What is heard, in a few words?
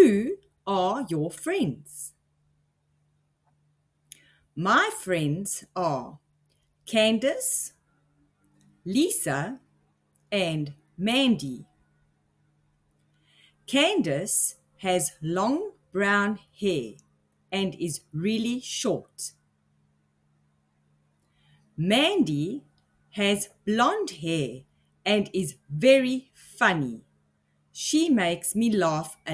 conversation with accent from South Africa; friends; “Who is your friend?”; People looks, family